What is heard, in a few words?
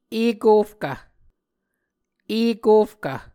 "ekofkv", muscogee